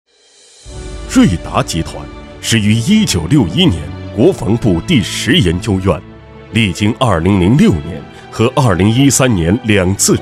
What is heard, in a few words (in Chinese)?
男, 专题, 企业, 大气讲述